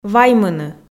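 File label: "ваймыны", Komi, female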